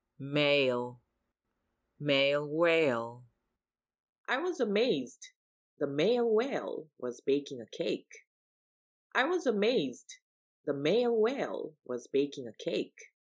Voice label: male